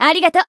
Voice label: Voice healed jp

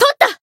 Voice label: finish jp; azami

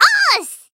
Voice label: limit break, jp; urania

